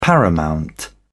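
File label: "paramount", British English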